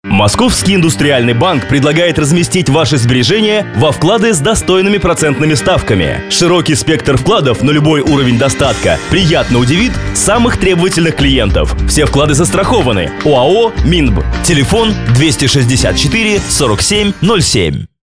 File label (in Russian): Bank informatsionnyiy muzhskoy